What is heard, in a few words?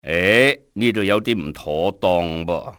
something not right, cantonese male; XBOX; enemy